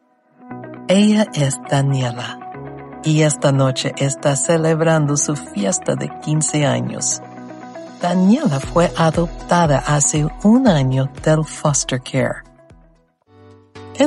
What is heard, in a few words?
US English Latin Spanish